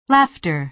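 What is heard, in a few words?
"laughter", American English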